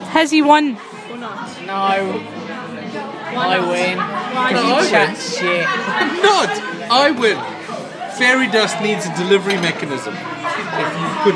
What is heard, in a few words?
conversation